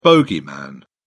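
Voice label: "bogeyman", British English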